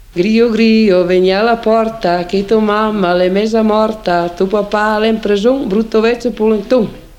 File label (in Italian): filastrocca; basso veneto; griio griio vegni a la porta